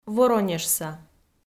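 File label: "воронежса", Komi, female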